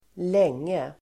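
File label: "länge", Swedish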